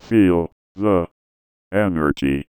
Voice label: VOICEFX ELCTR 140; Techno2